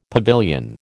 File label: "pavilion", American